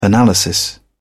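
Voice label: "analysis", British English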